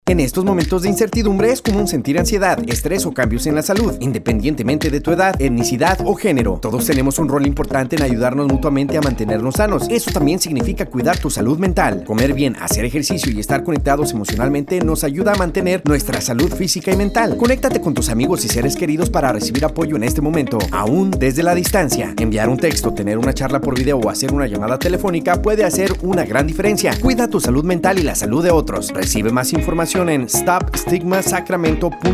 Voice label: Spot Spanish; COVID19